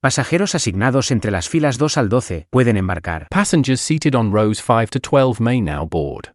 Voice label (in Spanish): Embarque, Announcements; CanaryFly